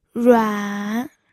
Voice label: "ruan3", pinyin

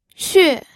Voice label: "xue4", pinyin

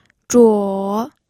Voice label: "zhuo3", pinyin

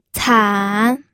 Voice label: "can3", pinyin

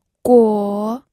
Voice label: "guo3", pinyin audio

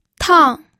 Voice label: "tang4", pinyin

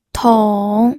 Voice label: "tong3", pinyin

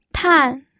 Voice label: "tan4", pinyin

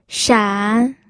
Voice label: "shan3", pinyin

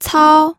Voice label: "cao1", pinyin

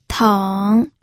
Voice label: "teng3", pinyin

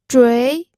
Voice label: "zhui2", pinyin

